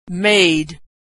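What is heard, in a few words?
"made", American English; verb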